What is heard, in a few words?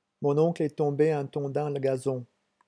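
"mon oncle", French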